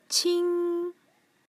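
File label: "qing", Mandarin Chinese, first tone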